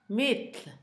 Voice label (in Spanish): mitla, Zapoteco, Asunción Ocotlan; Topónimos; INALI